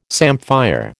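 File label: "samphire", American English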